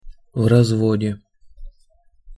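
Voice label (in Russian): "v razvode", Russian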